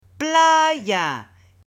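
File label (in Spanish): "playa", Spanish, female; LUGARES; AppLenguaje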